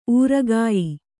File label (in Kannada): "ಊರುಗಾಯಿ", Kannada